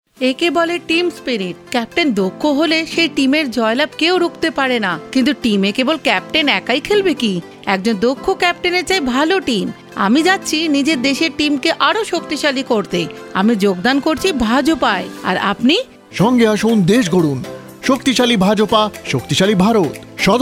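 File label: Bengali, female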